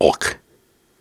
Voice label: Viking Vocal Syllables and Imaginary Words, Hard

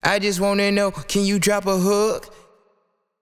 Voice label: Phrase Wet - I Just Wanna Know - 170 BPM, Vocal; Vocal Phrases; Cymatics; Euphoria Vocal Sample Pack

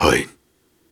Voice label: Soft Syllables and Imaginary Words, Viking Vocal

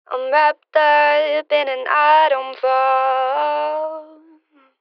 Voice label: Phrase Dry, 80 BPM C Min, Vocals; Autumn Fall; Cymatics - Eternity Sample Pack; Phrases

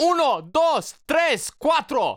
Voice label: Predrop Dry "Uno Dos Tres Quatro" - 110 BPM; Cymatics; Euphoria Vocal Sample Pack

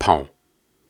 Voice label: Soft Syllables and Imaginary Words, Viking Vocal

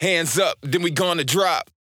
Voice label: Predrop Vocal, Hands Up, 128 BPM; House; Vocals; Vocal Phrases

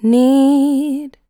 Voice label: "Need", Female Vocals, Dry

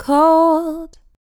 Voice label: "Cold", Female Vocals, Dry

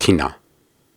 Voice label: Soft Syllables and Imaginary Words, Viking Vocal

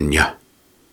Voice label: Soft Syllables and Imaginary Words, Viking Vocal

